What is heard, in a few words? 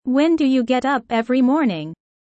low